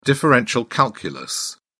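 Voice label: "differential calculus", British English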